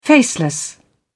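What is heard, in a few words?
"faceless", British English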